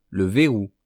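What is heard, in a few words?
"le verrou", French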